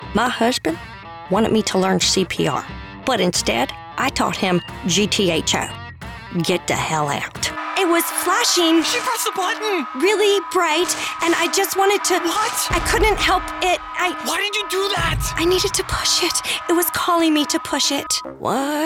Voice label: Character Demo